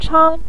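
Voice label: "chang" Mandarin Chinese, neutral tone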